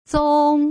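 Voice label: "zong", Mandarin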